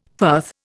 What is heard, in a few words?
"firth", Indian